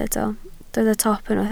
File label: "top", welsh